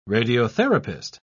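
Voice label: "radiotherapist", British English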